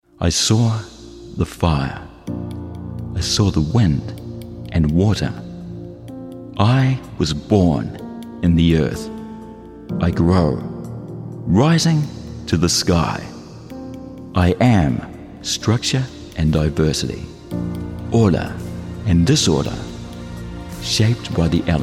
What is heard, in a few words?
Soft Sell Ad, New Zealand Male Voice; based in Auckland, New Zealand